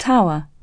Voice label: "tower", English